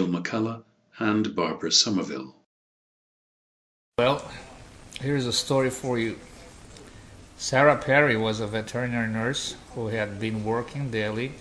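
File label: romania, International Dialects of English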